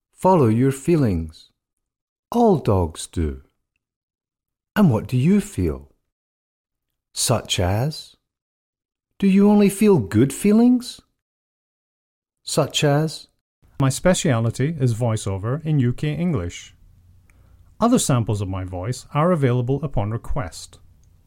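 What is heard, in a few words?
British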